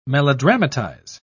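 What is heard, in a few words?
"melodramatize", British English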